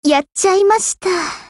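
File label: LOW MOOD, Japanese; EM2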